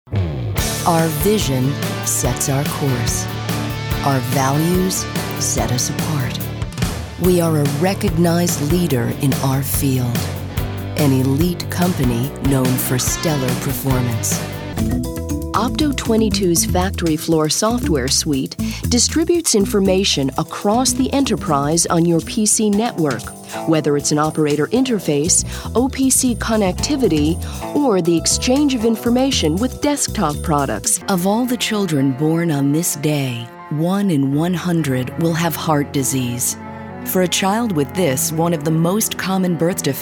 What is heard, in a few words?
50s Female Narration